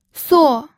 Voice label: "suo4", pinyin